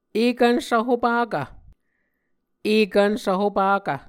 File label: "ekvn svhopakv", Muscogee; Mvskoke Words with Voicing Consonants; A Dictionary of Creek/Muskogee; SIL International